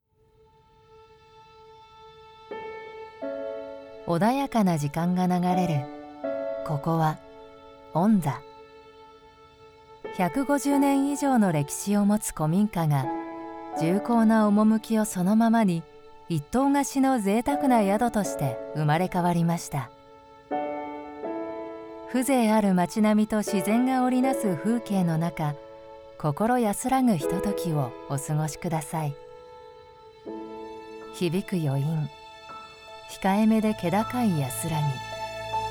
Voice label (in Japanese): 古民家宿 JP; ボイスサンプル